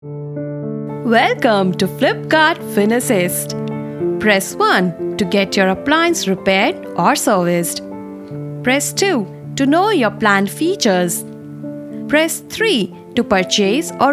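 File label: IVR English; FinAssist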